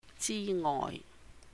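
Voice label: "jì ngoih 之外", Cantonese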